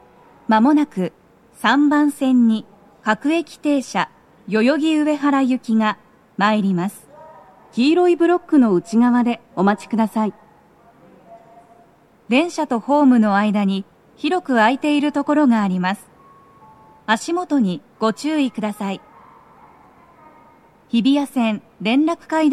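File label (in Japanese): 接近放送 女声, 各駅停車 代々木上原行(混雑付帯); 放送は「各駅停車 代々木上原行(混雑付帯)」です。; tokyometro chiyodaline hibiya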